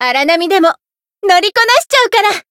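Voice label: Voice encounter fear Japanese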